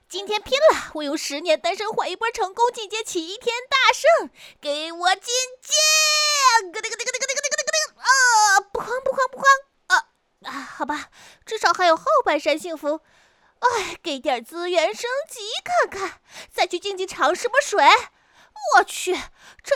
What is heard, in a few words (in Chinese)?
动漫 配音 女声